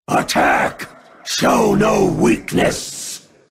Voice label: order, grunt sounds